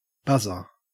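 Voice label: "buzzer", Australian English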